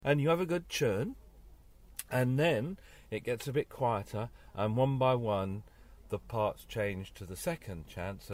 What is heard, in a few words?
announcement; Introduction